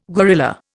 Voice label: "guerrilla", Indian